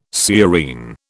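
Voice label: "sciurine", British English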